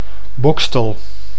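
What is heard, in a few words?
"Boxtel", Dutch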